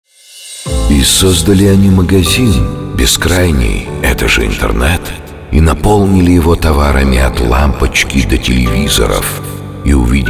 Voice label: реклама интернет магазина; FrogEE

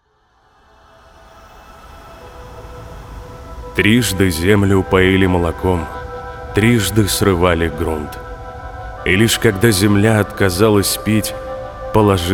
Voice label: док фильм ЗАКАДР

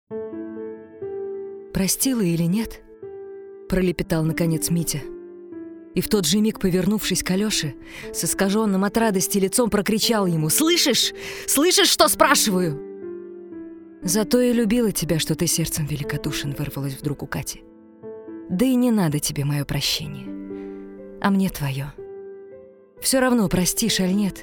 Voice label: АУДИОКНИГА

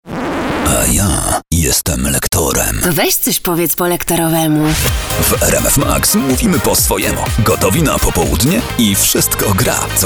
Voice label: Мужской польский

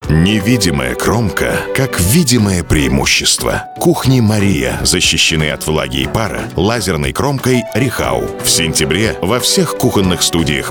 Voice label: РАДИОРОЛИК; КУХНИ МАРИЯ

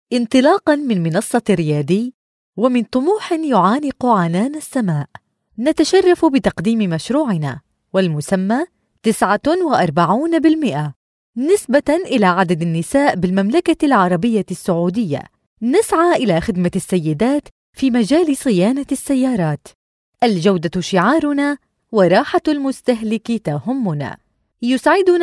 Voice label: Женский арабский

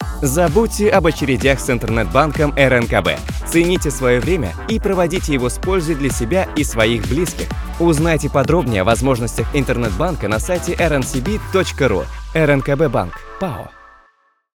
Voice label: РЕКЛАМА

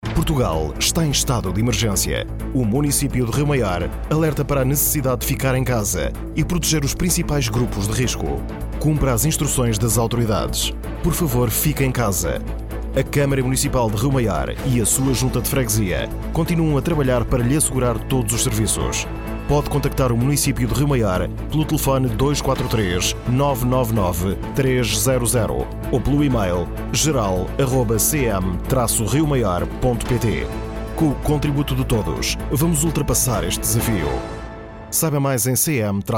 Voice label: Мужской португальский

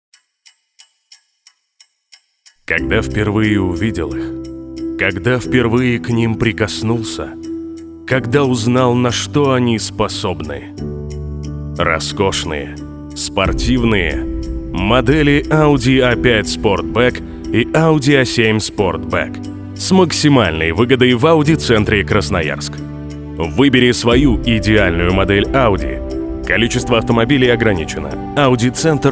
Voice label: РАДИО РЕКЛАМА

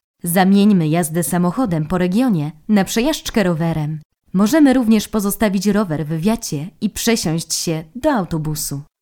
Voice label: Женский польский

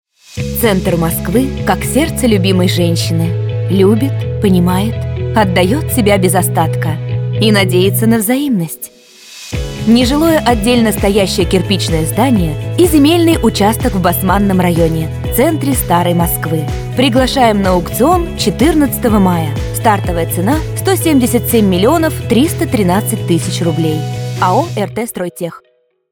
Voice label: РАДИО РЕКЛАМА

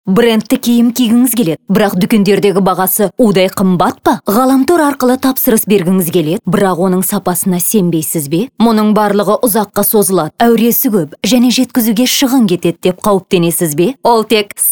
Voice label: локализация аудиорекламы для республики Казахстан запись голоса; бренда SALE 24; монтаж сведение

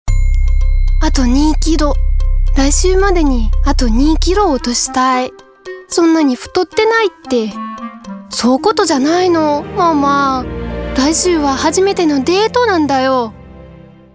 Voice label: Ролик Свидание Японский

